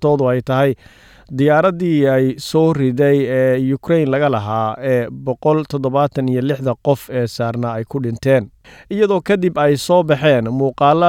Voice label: somali; SBS Somali; Iiraan oo diyaaradii Ukraine dad u xidhay.